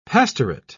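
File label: "pastorate", British English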